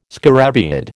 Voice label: "scarabaeid", American

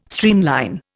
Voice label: "streamline", Indian

